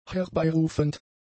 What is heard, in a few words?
"herbeirufend", German; adjectives